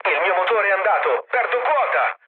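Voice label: Italian damaged engine mood low, speech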